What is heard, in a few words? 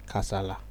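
"kasala", Nigerian Pidgin, male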